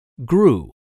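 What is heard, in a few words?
"grew", English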